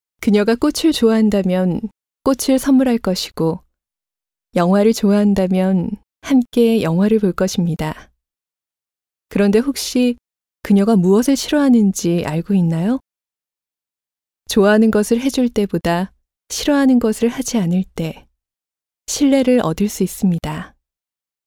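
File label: Korean female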